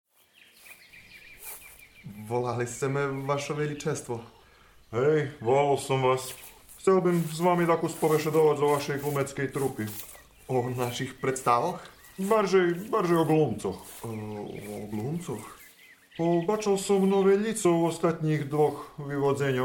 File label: radio drama